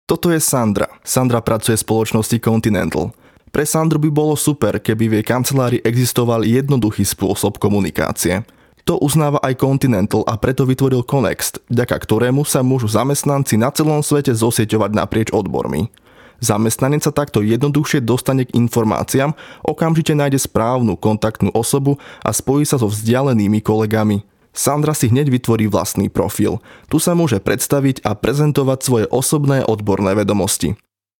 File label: slovak m narration